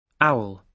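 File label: "owl", British English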